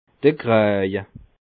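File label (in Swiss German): "kraje", Bas Rhin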